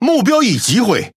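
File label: Speech Pilots Fighter Tango down, Taiwan; World Of Warships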